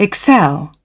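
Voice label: "excel", British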